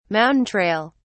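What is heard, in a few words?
"mountain trail", British English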